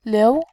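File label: "liu", Mandarin Chinese, second tone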